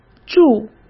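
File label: "驻" Mandarin Chinese; hsk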